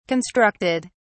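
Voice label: "constructed", English, British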